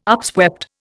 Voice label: "upswept", Indian

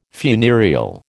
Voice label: "funereal", American

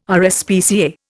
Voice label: "RSPCA", Indian

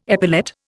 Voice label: "epilate", Indian

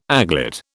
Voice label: "aglet", British

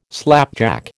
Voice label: "slapjack", American

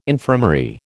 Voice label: "infirmary", American

